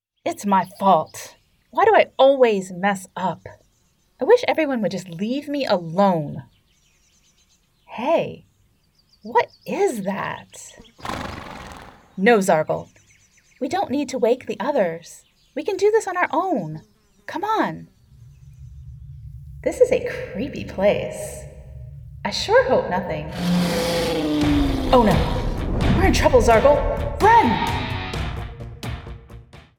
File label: Animation Voice Demo